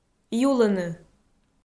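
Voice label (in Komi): "юлыны", Komi, female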